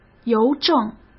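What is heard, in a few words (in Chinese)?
"邮政", Mandarin Chinese; hsk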